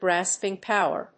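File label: "Grasping power", English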